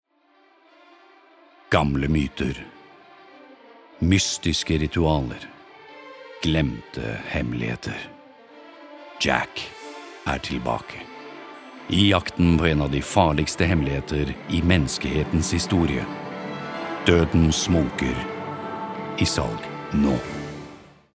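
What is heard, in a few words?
Norwegian movie trailer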